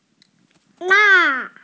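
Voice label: "la4", Chinese, female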